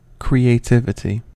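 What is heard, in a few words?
"creativity", American English; pronunciations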